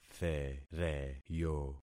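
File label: "thereyo", concatenated syllables; Affixing experiment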